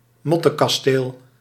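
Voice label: "mottekasteel", Dutch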